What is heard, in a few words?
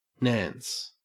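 "nance", English, Australian accent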